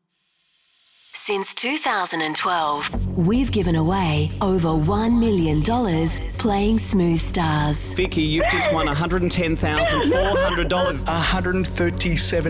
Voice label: RADIO PROMO